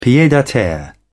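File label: "pied-a-terre", British English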